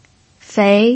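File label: "fei", Mandarin Chinese, second tone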